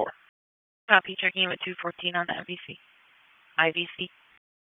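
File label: Raleigh Police Northeast District Dispatch, p25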